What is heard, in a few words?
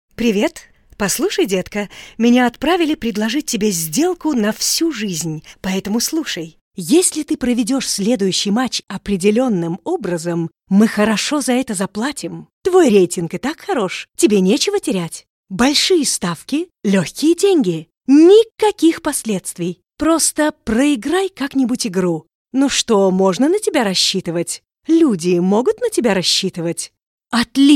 Russian Voice Over animated cheerful